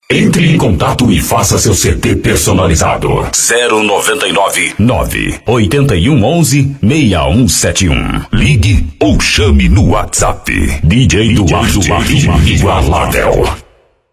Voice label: Som COMERCIAL; Lunardi Audio